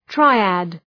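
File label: "triad", English; {‘traıæd}; (Ουσιαστικό)● τριάς● τριάδα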